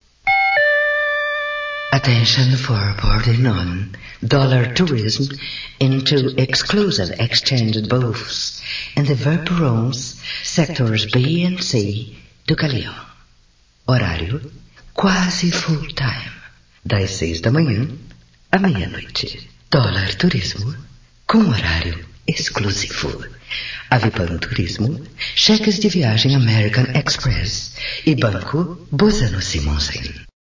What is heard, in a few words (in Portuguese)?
spot ingles